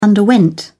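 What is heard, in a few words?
"underwent", British English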